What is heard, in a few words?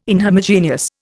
"inhomogeneous", Indian